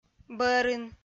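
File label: "бӧрын", Komi-Zyrian, female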